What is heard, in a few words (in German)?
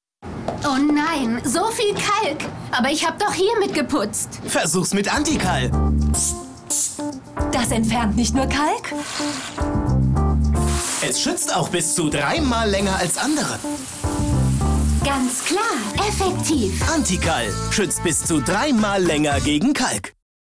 tv werbung; antikal